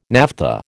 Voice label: "naphtha", American

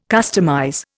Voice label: "customize", Indian

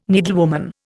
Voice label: "needlewoman", Indian

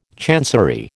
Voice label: "chancery", American

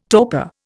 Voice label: "torpor", Indian

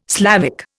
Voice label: "Slavic", Indian

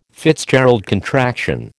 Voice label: "FitzGerald contraction", American

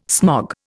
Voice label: "smog", Indian

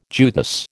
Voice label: "Judas", American

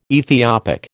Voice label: "Ethiopic", American

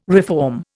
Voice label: "reform", Indian

